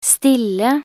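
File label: "stille", Norwegian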